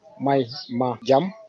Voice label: leave me alone, wolof